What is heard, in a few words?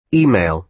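"e-mail", American English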